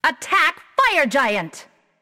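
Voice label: attack female buffed; smite; nike; voicepack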